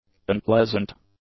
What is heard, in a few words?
"unpleasant", english; adjectives